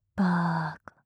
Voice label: "バーカ"・極近・右; マゾオスのあなたに敗北射精の快楽を叩き込む音声

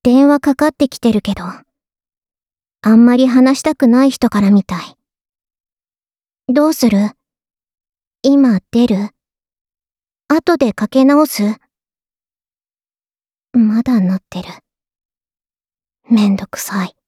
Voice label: 着信ボイス; 春日野穹; KU100バイノーラル

